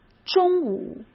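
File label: "中午", Mandarin Chinese; hsk; chinese-flashcards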